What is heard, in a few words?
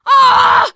incapacitated injury, teengirl, voice; player; survivor